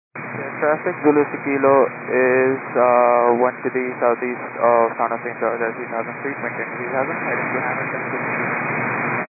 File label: 122 925MHz, scanner radio